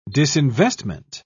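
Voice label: "disinvestment", English, British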